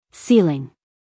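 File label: "ceiling", American English, female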